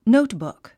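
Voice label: "notebook", English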